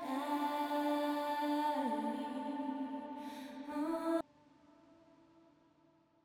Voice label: Voc Reverb; Loops; Tonal; Vocal; Ableton Live 12 Lite; Core Library